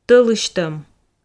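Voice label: "тӧлысьтӧм", Komi, female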